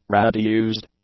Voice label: "radiused", English; adjectives